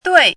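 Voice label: "duì", Mandarin Chinese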